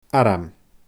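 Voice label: "arm", Scottish Gaelic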